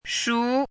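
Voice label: "shu2", Mandarin Chinese syllable, pinyin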